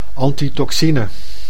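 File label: "antitoxine", Dutch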